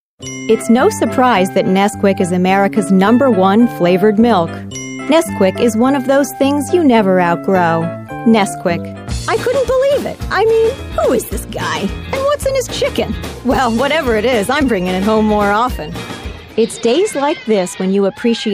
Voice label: Commercial General US English

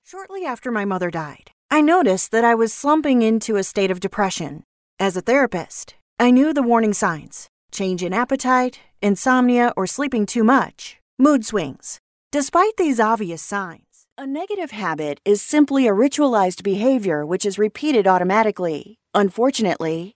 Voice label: Audiobook Demo

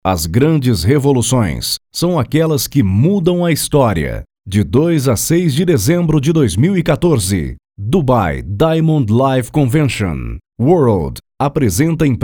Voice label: Television Spots, PT-BR

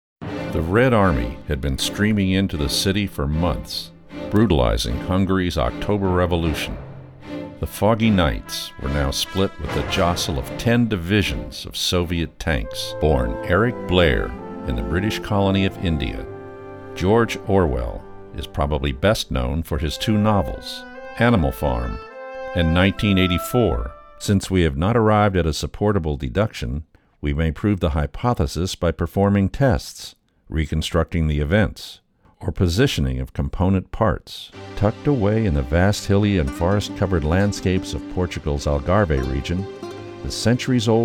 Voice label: Narrations long

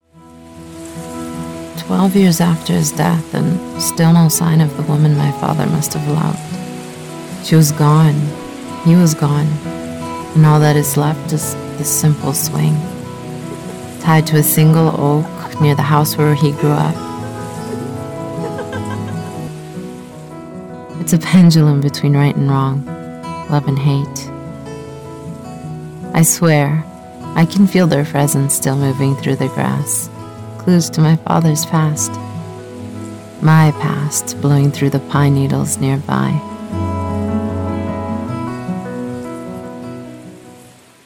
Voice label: Movie Trailer

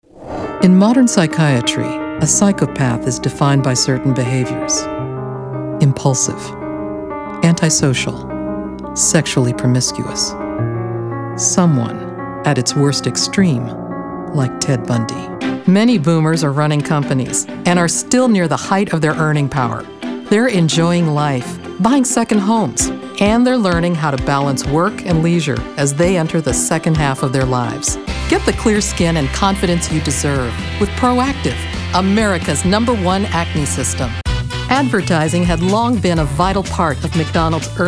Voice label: narration

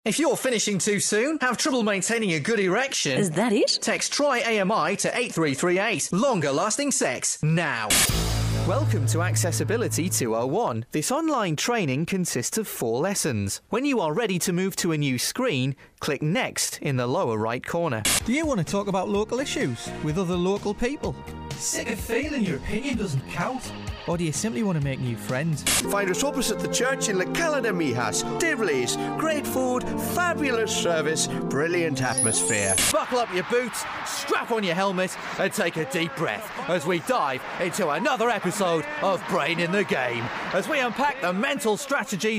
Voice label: Voiceover

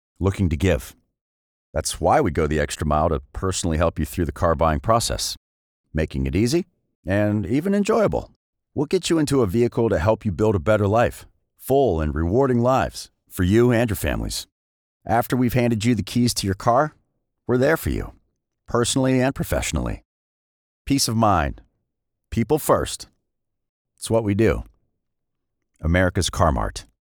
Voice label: conversational male voice over; america's car mart

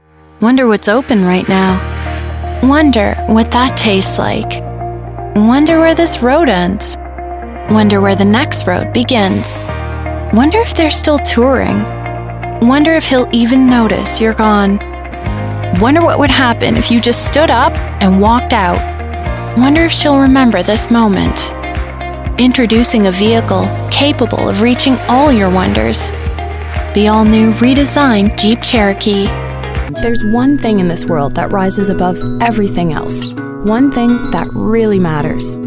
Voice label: natural conversational

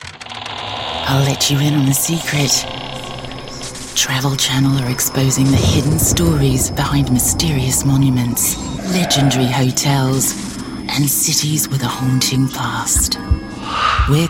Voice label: Voiceover Female

